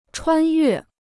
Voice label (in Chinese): "穿越", Chinese